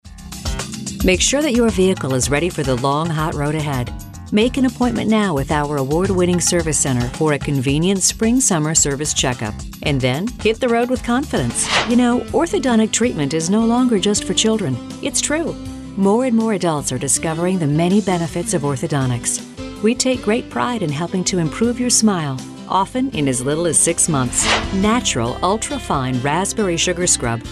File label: female